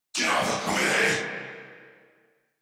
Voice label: Surprised Crewmen, en; Warframe; Corpus Crewmen; Crewman A; Battle Barks